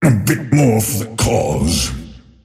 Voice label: lasthit pain; dota; earthshaker; arcana